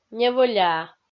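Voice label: "невӧляа", Komi-Zyrian, female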